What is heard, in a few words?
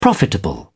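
"profitable", British English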